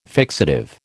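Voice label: "fixative", American English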